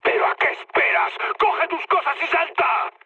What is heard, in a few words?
bailout, mood high, speech; War Thunder